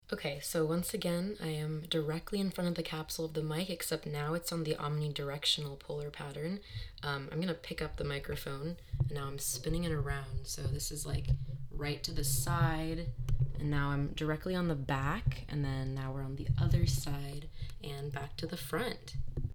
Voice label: omni talk; JLab Talk GO USB microphone